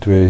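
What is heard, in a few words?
"twey", Middle English, male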